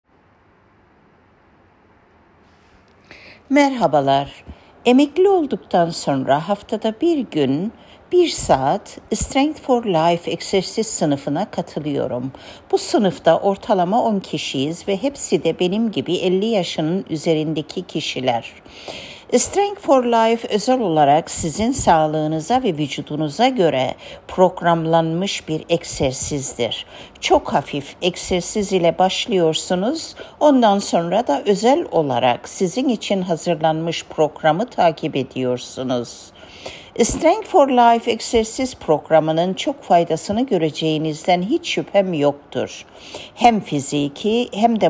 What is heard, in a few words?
Turkish testimonial